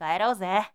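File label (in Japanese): "kaerouze", Japanese boy; shonen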